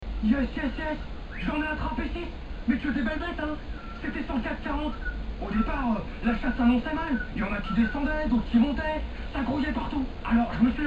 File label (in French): publicite; chasseur de warrants cac 40